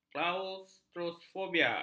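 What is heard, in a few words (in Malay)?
"klaustrofobia", Malay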